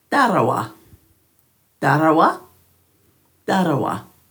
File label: "dharrawa", Dhurga